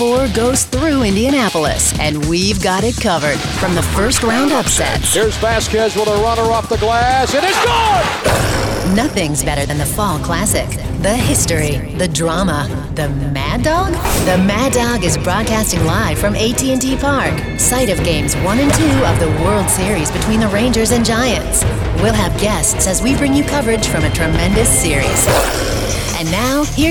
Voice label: Sports Talk Imaging

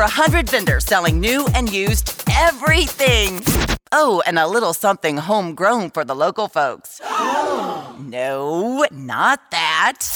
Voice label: Country Hot AC Imaging

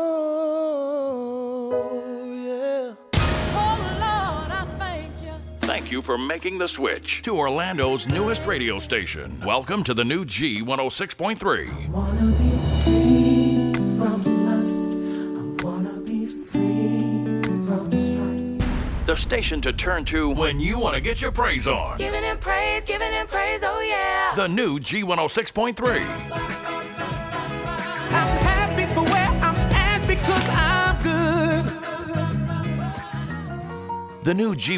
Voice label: Gospel Imaging

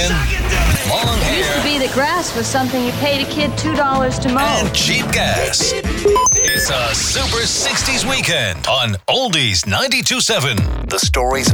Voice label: RADIO IMAGING

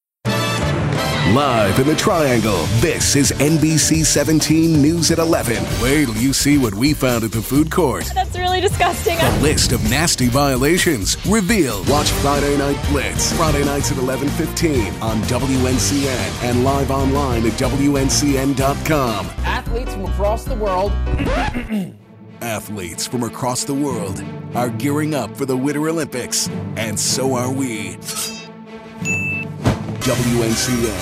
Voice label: TV VO Imaging

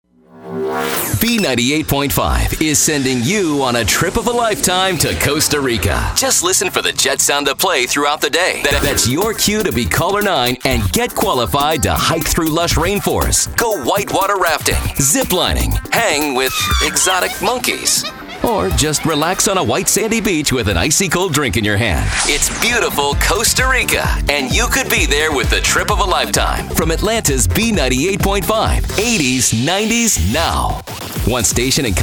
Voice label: Hot AC Imaging

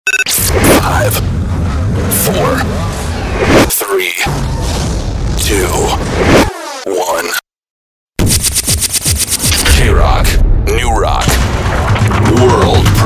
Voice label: radio

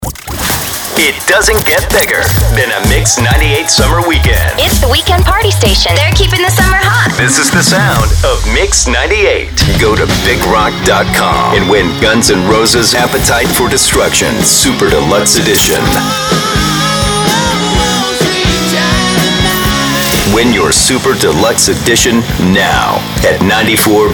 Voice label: Radio Imaging Voice Over

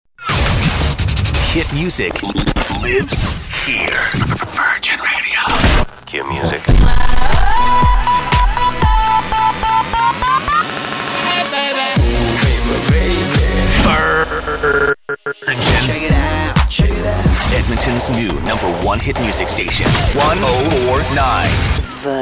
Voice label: imaging chr

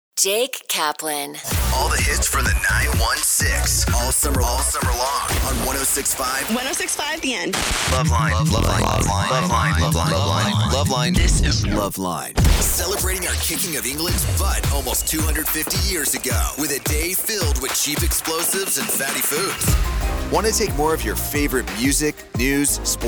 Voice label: radio imaging

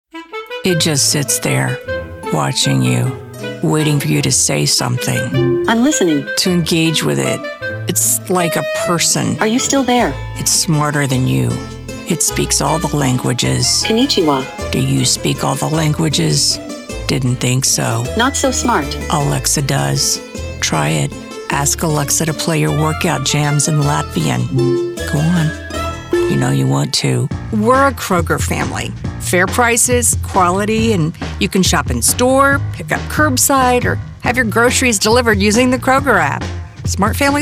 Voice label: Commercial

